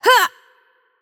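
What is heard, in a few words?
Hungarian attack heavy voice; bloom minigame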